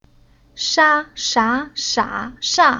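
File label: "Sha", Chinese syllable; Уроки фонетического блока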